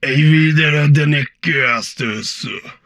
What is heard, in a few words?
humanoid portrait male; Stellaris; humanoids